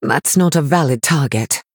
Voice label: Female High Elf ERROR TARGET

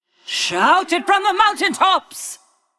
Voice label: Female Blood Elf Undead

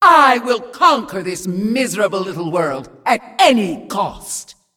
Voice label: Female Demon Threaten

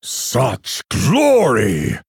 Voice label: Male Orc Wow

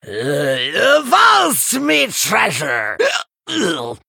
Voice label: Male Human Play

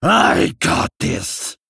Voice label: Male Gnoll Play

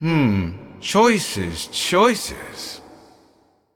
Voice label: Male Void Elf Emote Thinking

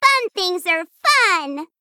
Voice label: Female Gnome Bark

